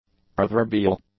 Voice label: "proverbial", english; adjectives

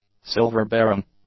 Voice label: "silver-bearing", English; adjectives

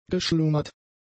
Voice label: "geschlummert", German; adjectives

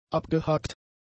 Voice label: "abgehakt", German; adjectives

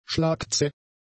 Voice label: "schlagzäh", German; adjectives

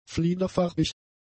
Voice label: "fliederfarbig", German; adjectives

